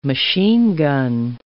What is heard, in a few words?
"machine gun", English